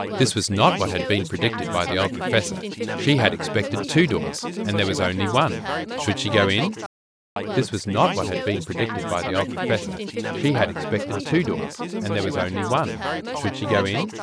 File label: babble ffp3 preformed plus 5 db snr